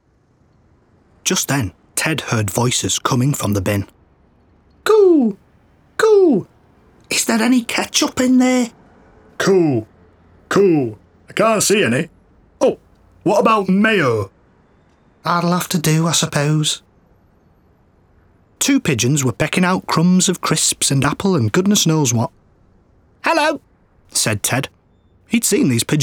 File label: Audiobook; Grimwood